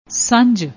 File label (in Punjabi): "sanjh", Punjabi; ਸੰਝ